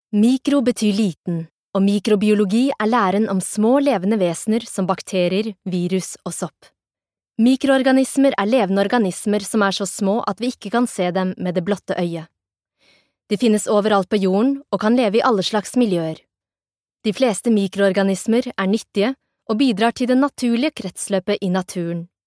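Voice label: Norwegian elearning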